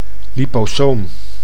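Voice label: "liposoom", Dutch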